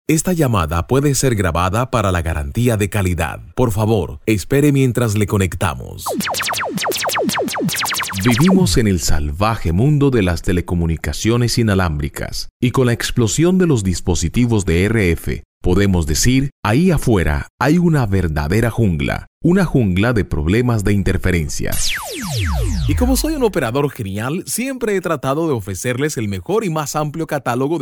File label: spanish latin american ivr